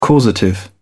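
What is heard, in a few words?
"causative", British English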